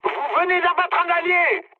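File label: French player killed ally mood high; War Thunder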